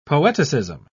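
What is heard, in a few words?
"poeticism", British English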